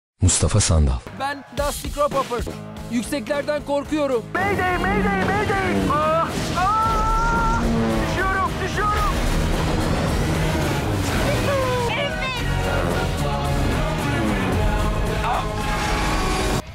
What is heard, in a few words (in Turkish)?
animasyon